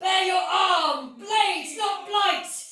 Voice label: Soldier Female, Battle Barks; Sinecure; en